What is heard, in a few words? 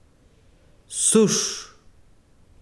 besiro sol, chiquitano